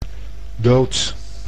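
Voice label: "dood", Dutch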